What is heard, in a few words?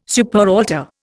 "superaltar", Indian